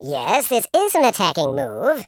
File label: dragon punch, Patronising